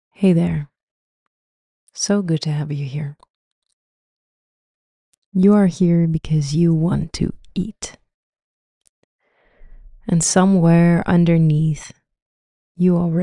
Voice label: Guided Somatic Session; Emotional Eating; a guided somatic session to stop emotional eating